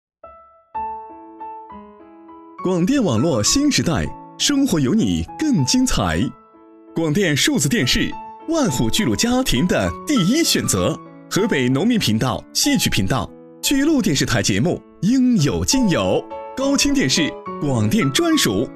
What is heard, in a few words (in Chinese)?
广电宣传, 轻松活泼